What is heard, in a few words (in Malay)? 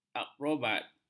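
"akrobat", Malay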